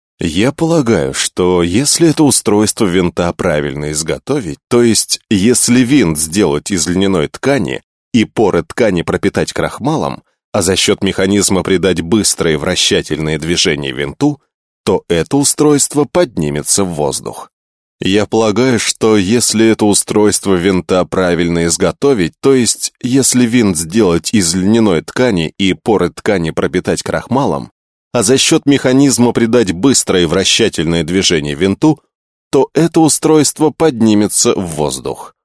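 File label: Russian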